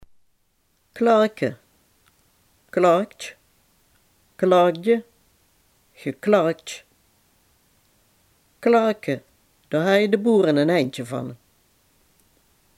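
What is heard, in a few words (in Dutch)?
"fluimen" Limburgish dialect word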